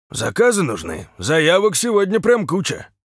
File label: truck Driver greeting